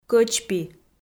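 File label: "кӧчпи" Komi, female; kpv audio dict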